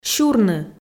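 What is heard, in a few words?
"сюрны", Komi, female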